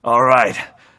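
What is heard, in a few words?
"yes", male; tf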